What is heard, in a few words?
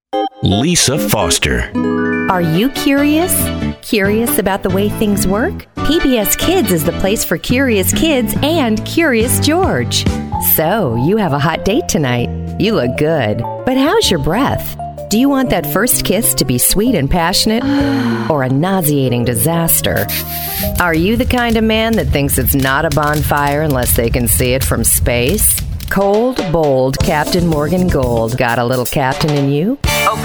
Commercial